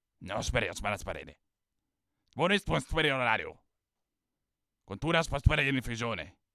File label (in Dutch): Boze Grenswachter Praat